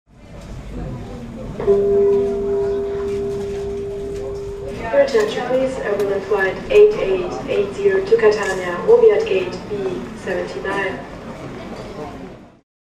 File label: Flight Catania, Flughafen-Ansagen